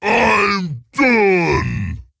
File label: Ogre Emote Concede